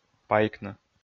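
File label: "пайкны", Komi, male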